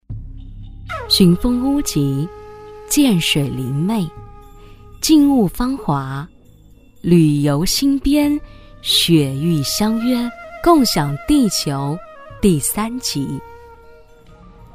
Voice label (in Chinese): 女 宣传片配音 知性空灵; 年轻知性; 质感磁性; 雪域乡约